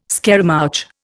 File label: "scaramouch", Indian